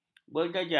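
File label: "berjajar", Malay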